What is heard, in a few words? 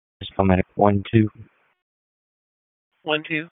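EMS Dispatch, p25; wake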